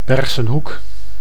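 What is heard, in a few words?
"Bergschenhoek", Dutch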